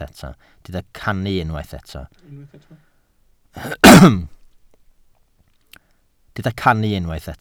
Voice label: "cannu", Welsh

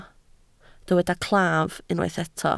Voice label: "claf", Welsh

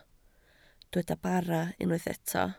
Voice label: "bara", Welsh

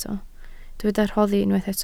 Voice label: "rhoddi", Welsh

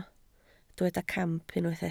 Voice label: "camp", Welsh

